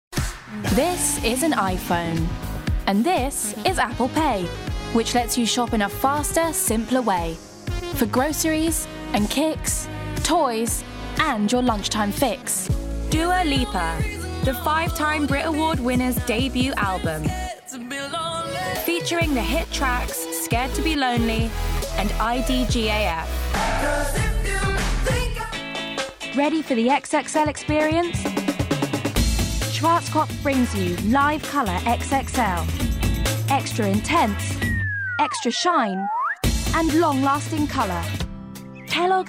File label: Commercials Montage